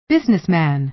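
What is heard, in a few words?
"businessman", American English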